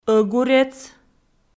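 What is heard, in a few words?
"ӧгурец", Komi-Zyrian, female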